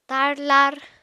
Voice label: "tarlar", apatani

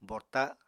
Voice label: "borta", Apatani

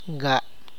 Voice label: "nine", tlacochahuaya

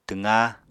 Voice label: "twqá", Apatani

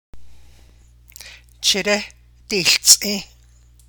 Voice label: navajo imperative Start the car

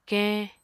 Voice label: "keñ", Apatani

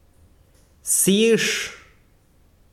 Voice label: besiro sal, chiquitano

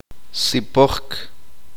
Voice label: uspanteko; hincharse

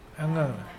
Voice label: dried, Mokilese

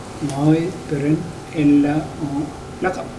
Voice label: I will go there tomorrow, Mokilese

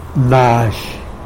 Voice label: "nazhy", tlacolula; wet

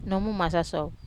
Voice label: "masaso", Wamesa

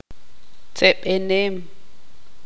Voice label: tzutujil

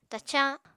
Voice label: "tacañ", Apatani

